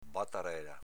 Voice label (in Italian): "batarela", dialetto veneto